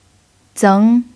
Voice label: "zeng2", Chinese pinyin

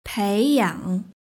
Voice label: "peiyang", Chinese; hsk5

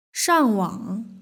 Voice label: "shangwang", Chinese; hsk5

